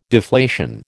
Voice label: "deflation", American

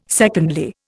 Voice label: "secondly", Indian

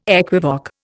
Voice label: "equivoque", Indian

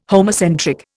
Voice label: "homocentric", Indian

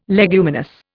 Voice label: "leguminous", Indian

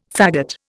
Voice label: "fagot", Indian

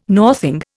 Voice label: "northing", Indian

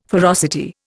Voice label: "ferocity", Indian

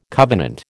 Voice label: "covenant", American

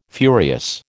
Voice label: "furious", American

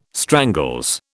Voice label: "strangles", British

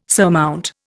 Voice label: "surmount", Indian

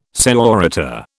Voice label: "seäorita", British

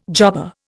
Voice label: "jobber", Indian

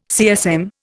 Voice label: "CSM", Indian

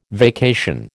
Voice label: "vacation", American

